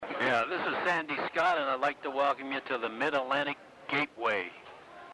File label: Audio Greeting